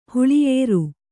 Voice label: "ಹುಳಿಯೇರು", Kannada

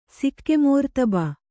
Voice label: "ಸಿಕ್ಕೆಮೋರ್ತಬ", Kannada

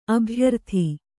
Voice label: "ಅಭ್ಯರ್ಥಿ", Kannada

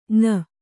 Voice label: "ಞ", Kannada

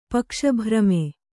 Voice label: "ಪಕ್ಷಭ್ರಮೆ", kannada

